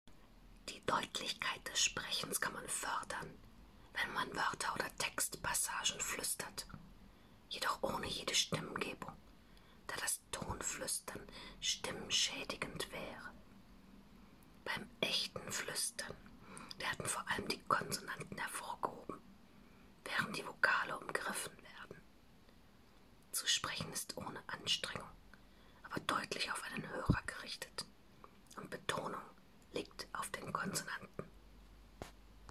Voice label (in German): Fluestern